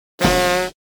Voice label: comedy accent; NCH Library